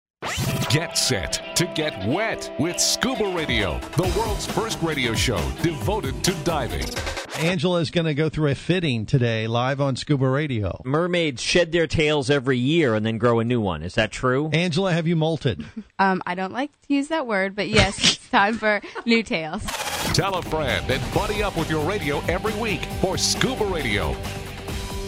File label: Promo